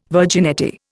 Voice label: "virginity", Indian